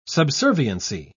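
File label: "subserviency", British English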